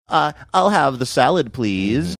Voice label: funny